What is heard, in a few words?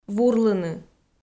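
"вурлыны", Komi, female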